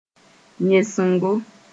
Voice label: "yẽsengo", moore